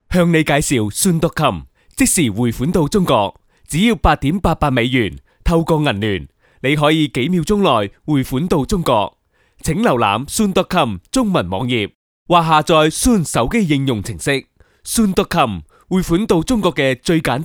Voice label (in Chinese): 粤男, 香港粤语